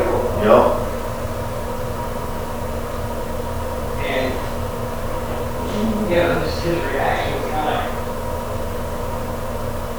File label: Unexplained Female Inaudible Voice